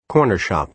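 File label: "corner shop", American English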